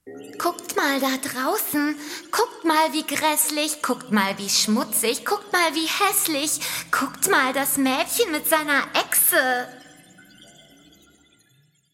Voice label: Prinzessin, Children's Voice (Kinderstimme), German; Audio Drama (Hörspiel), Audiobook (Hörbuch)

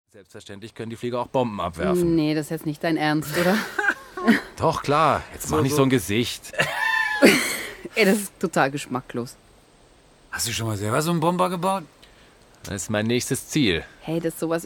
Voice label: hörspiel; lügenspiel

